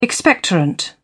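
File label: "expectorant", British English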